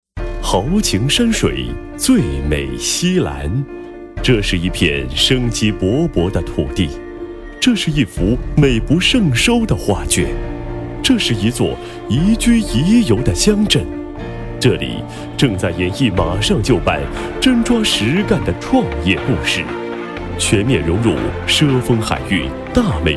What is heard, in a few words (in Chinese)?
专题 政府 厚重, 男国; 醉美西兰